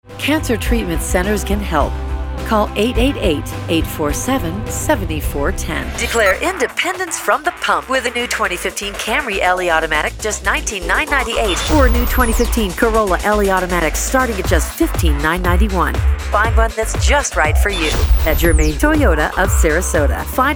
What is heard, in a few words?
Commercial Spots, voice over